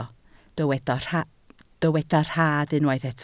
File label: "rhad", Welsh